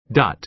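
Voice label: "dot", American English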